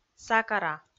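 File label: "сакара", Komi, female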